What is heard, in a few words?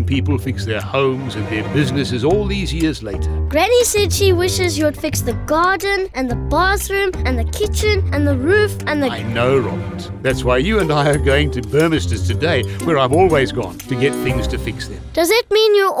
Radio AD Grandpa